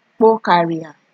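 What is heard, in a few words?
"kpo carrier", Nigerian Pidgin, female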